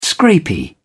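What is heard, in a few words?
"scrapie", British English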